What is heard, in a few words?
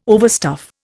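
"overstuff", Indian English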